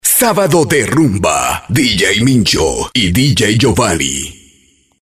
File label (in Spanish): VOZ OFF